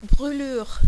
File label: "brulures", French